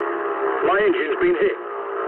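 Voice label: damaged engine mood low, speech English; War Thunder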